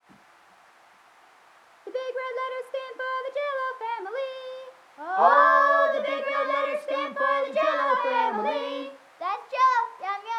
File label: commercial with noise; sound effects and sound reinforcement